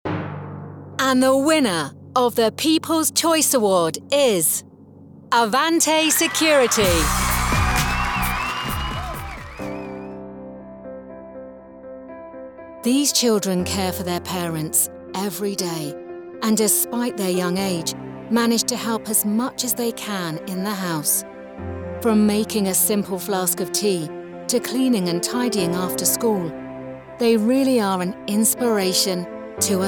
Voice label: Voice of God